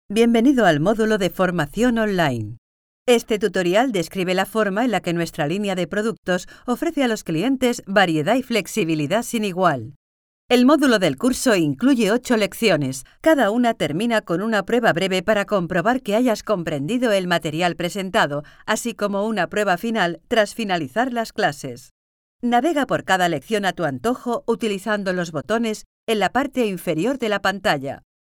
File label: F Spanish EU